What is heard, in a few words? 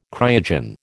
"cryogen", American